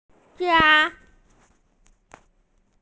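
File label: "jia1", Mandarin Chinese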